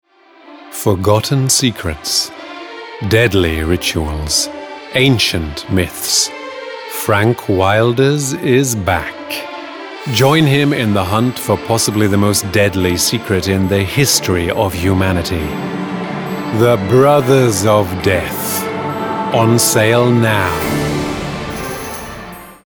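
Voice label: British commercial movie trailer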